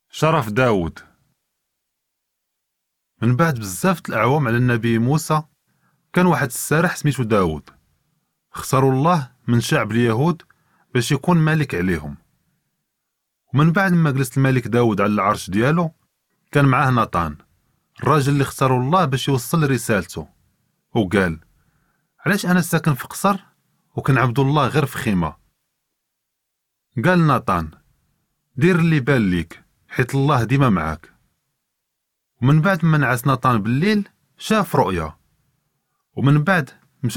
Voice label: david honored, moroccan arabic one story

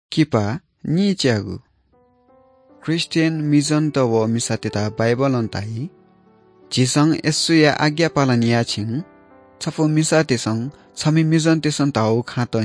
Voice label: good news newari bhaktapur

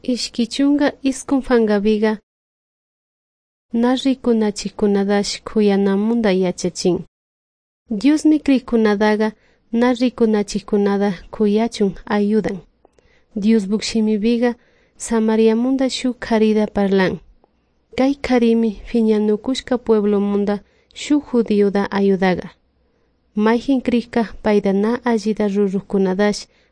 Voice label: good news quichua de salasaca; quechua; bible

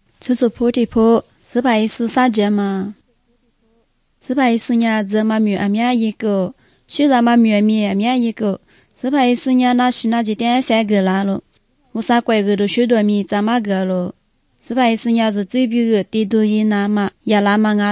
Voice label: good news lisu southern, drama; bible

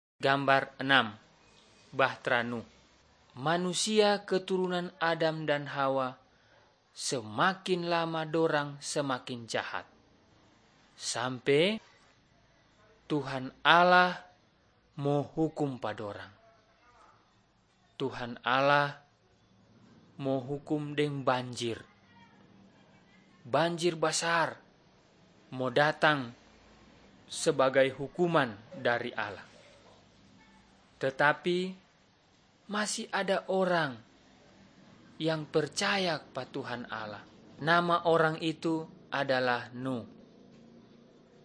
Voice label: malay manado; good news

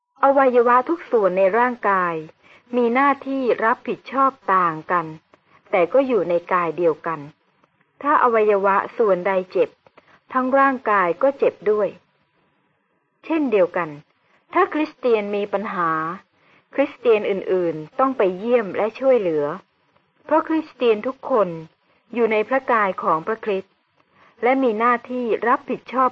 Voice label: good news short thai central

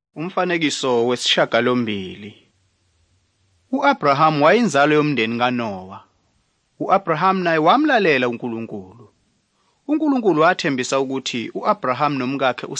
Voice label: zulu bible; good news

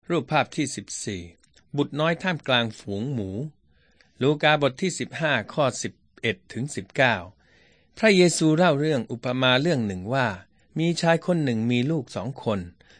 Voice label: thai central

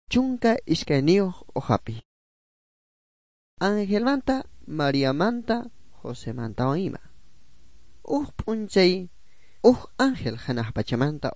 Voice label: good news quechua bolivian sucre; bible

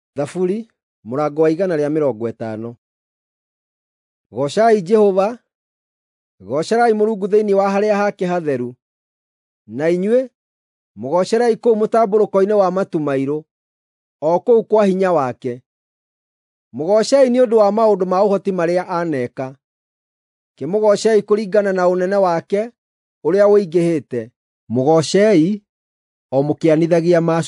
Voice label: psalms, gikuyu drama

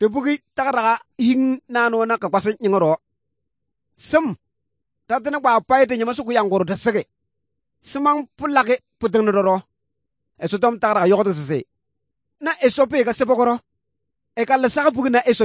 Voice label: good news, kabiye bible

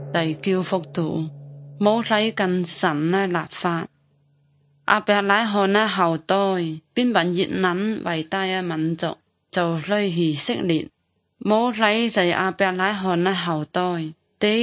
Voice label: good news zhuang guigang guiping

